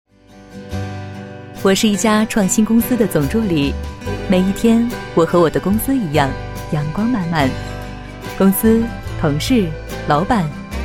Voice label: 女 广告 深情叙述, 国语女声配音

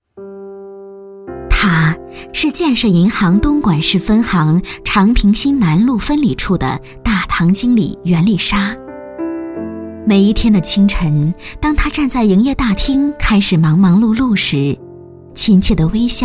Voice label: 女 专题 人物介绍 温柔叙述, 国语女声配音

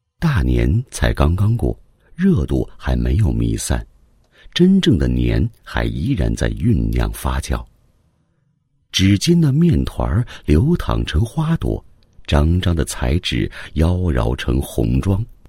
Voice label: 男 散文朗读; 柳林人的年

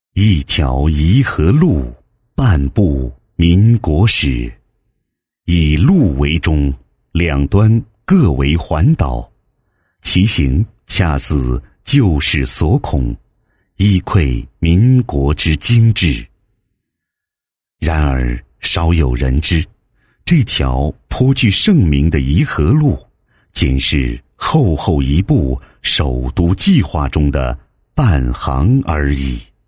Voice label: 男 专题 低沉解说 文化历史感, 国语男声配音; 颐和; 浑厚

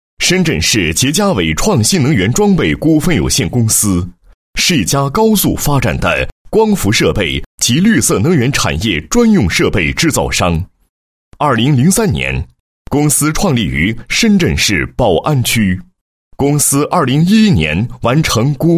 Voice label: 男 企业 新能源装备股份有限公司; 捷佳伟创

